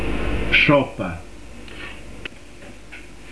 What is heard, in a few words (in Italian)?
"stroppa", pronuncia, dizionario carigliaro; Per scrivere e leggere parole tipo stroppa